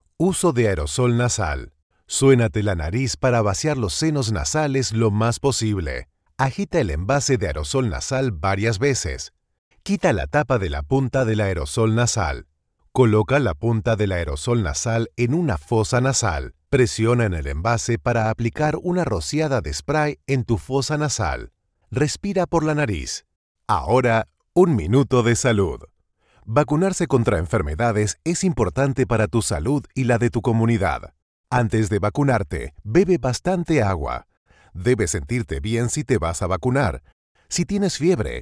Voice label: Medical, Spanish